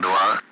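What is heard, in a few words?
Speech Russian; Allied Flight; Digits; DCS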